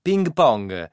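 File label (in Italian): "ping pong", Italian word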